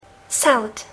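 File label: "salad", English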